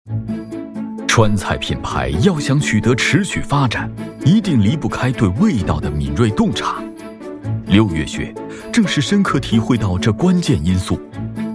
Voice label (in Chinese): 男 专题 介绍 餐饮 食品; 六月雪